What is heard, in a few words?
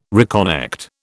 "reconnect", British